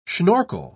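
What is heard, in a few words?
"schnorkel", British English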